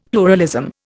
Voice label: "pluralism", Indian; Dictionary